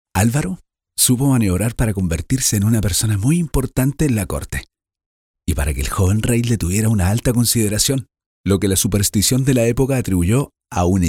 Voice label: locutor chile chilean voiceover; locutores chilenos